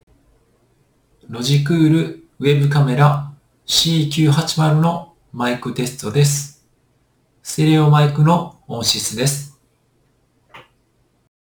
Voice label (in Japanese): ステレオマイク